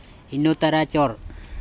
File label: "inotaracOr", andamanese; water resource